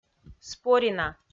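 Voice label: "спорина", Komi, female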